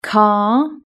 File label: "car", british english